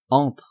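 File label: "entre", French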